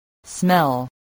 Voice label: "smell", voice, spelling